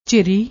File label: "jhiri", Punjabi